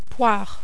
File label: "poire", French